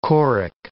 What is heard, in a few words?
"choric", English